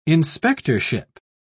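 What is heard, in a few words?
"inspectorship", British English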